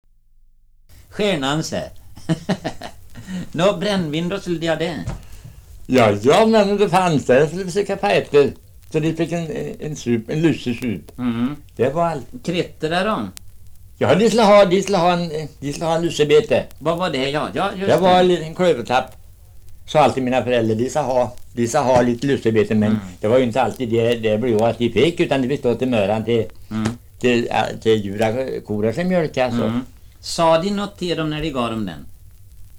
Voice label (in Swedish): Svenska sagor, dialekter; Folkminnen